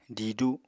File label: "didu", Apatani